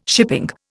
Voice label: "shipping", Indian